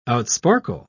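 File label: "outsparkle", British English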